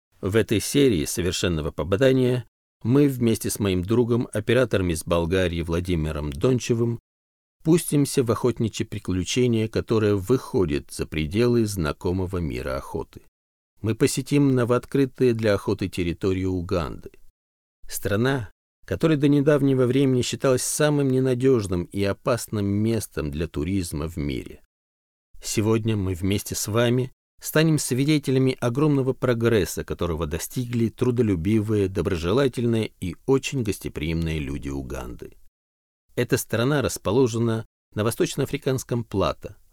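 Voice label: russian male narration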